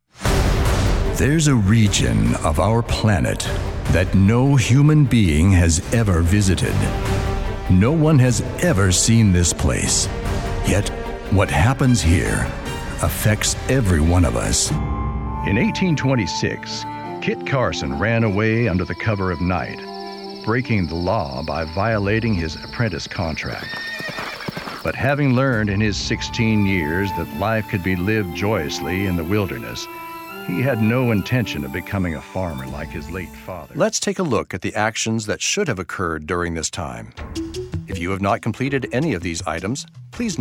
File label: Narration